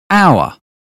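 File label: "hour", British English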